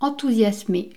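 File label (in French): "enthousiasme", French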